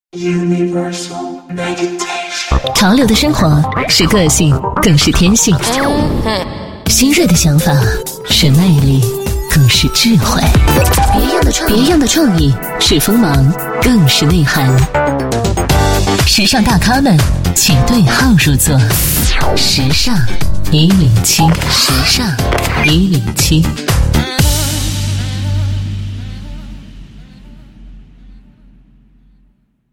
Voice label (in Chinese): 女4号 栏目包装, 国语女声配音